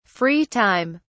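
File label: "free time", British English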